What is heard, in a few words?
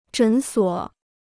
"诊所", Chinese